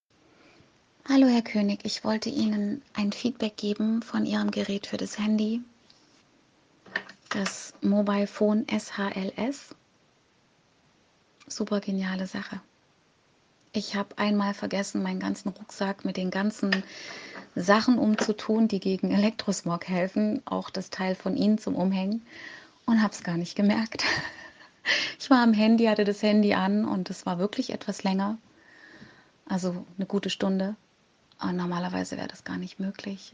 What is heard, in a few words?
audio message